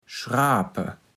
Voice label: "schrape", Platt

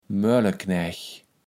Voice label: "Moelleknaech", Platt

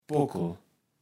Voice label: "Pockel", Platt

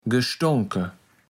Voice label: "gestonke", Platt

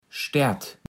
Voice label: "Staert", platt

